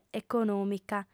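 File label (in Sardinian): "econòmica", female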